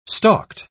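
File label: "stalked", British English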